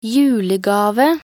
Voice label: "julegave", nb_NO

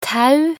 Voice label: "tau", nb_NO

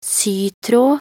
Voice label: "sytråd", nb_NO

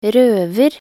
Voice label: "røver", nb_NO

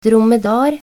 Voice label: "dromedar", nb_NO

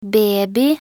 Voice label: "baby", nb_NO

